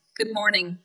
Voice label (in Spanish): Voice Acting es